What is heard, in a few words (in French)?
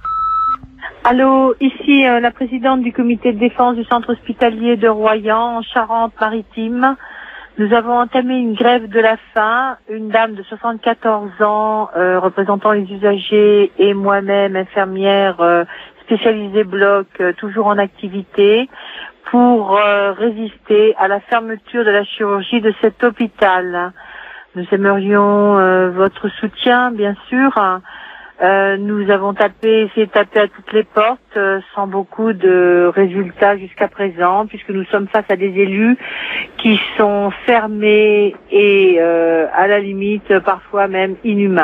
répondeur; Fermeture hopital